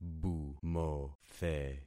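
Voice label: "bumothe", concatenated syllables; Affixing experiment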